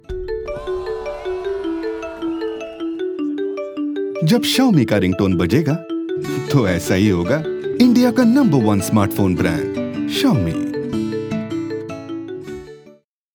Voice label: Hindi TVC